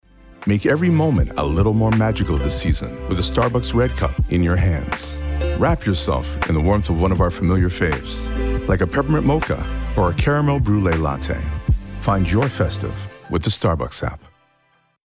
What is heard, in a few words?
Canada English; Christmas Commercials 2023; Starbucks